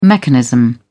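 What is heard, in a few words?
"mechanism", English, British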